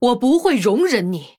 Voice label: Female Human Threaten; zh